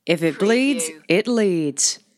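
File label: News Female If Bleeds Lead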